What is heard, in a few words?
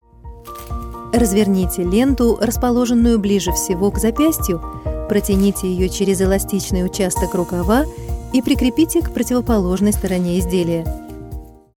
Russian Voice Over Warm Calm